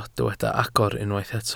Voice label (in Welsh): "agor", Welsh